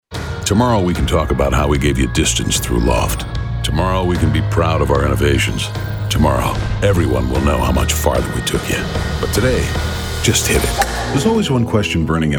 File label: Commercial